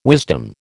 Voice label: "wisdom", American

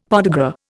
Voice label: "podagra", Indian; Dictionary

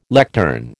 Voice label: "lectern", American

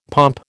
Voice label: "pomp", American

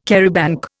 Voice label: "charabanc", Indian

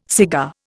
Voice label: "cigar", Indian

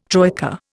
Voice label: "troika", Indian

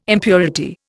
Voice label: "impurity", Indian

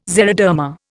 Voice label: "xeroderma", Indian

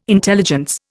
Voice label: "intelligence", Indian

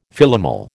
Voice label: "Philomel", American

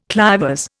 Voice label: "clivers", Indian

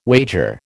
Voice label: "wager", American

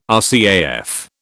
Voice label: "RCAF", British

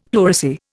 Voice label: "pleurisy", Indian; Dictionary

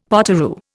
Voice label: "potoroo", Indian; Dictionary

